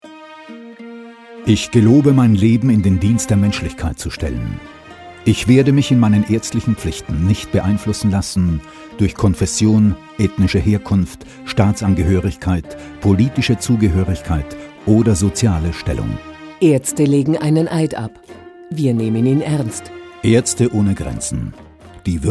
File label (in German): Radiospot